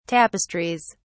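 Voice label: "tapestries", British English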